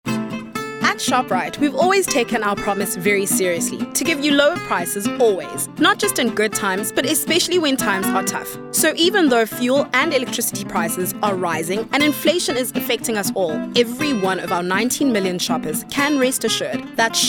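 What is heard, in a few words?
English Hard Sell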